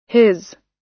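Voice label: "his", US English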